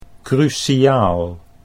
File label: "cruciaal", Dutch